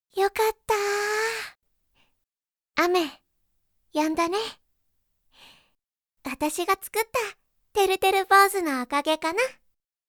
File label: girl